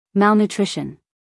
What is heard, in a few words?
"malnutrition", US English, female; everyone-can-use-english